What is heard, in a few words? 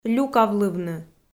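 "люкавлывны" Komi, female; kpv audio dict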